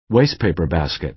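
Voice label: "wastepaper basket", American English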